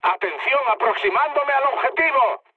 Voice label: near waypoint, mood med, speech; War Thunder

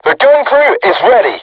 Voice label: voice message art charged, crew tanks portuguese

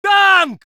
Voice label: voice sov infantry

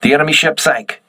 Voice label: voice message enemy destroyed, crew ships english

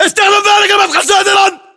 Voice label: order cover doorway, battle chatter, english

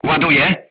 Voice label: voice message reloading, polish

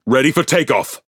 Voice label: Speech Pilots Torpedo Ready, EN GB; World Of Warships

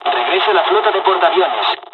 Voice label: evac, dialogs spanish; War Thunder

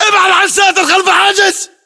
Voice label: order cover barricade, battle chatter, english

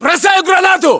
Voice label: inform attack grenade, battlechatter, ru

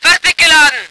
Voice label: voice ger vehicle

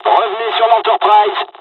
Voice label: evac, dialogs french; midway us; War Thunder

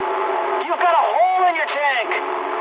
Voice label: eng tank damaged mood high, speech eng

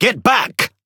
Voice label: Speech Quick Commands Back, EN GB; Ship; World Of Warships

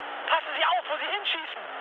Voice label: player friendly fire, mood med, speech ge

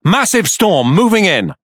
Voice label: Speech Alarms Storm, EN GB; World Of Warships

